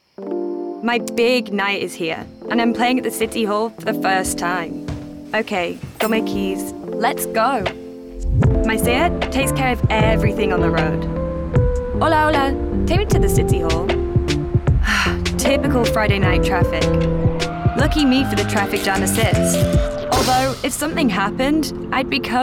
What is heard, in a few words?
female english tv comercial; ideasonora